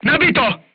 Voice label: voice message charged, crew tanks czech